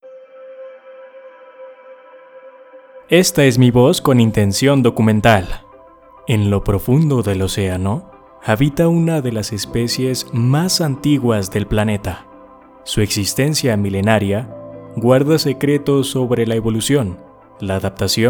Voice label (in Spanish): Documental Musicalizado, locutor